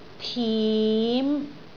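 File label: "tim5", Cantonese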